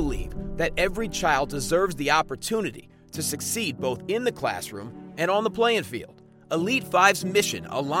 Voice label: New York Accent Plumbing, voiceover; Voice123